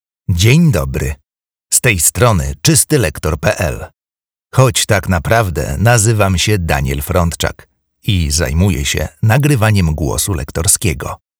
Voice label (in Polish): nagrania lektorskie